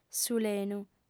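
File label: "sulenu", Sardinian, female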